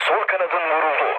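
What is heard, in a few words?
left wing, mood low, Turkish; speech; War Thunder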